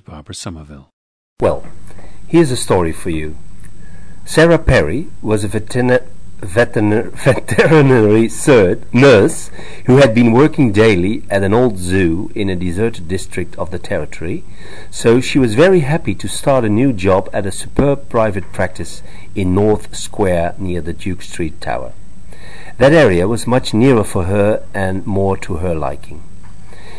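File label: netherlands, International Dialects of English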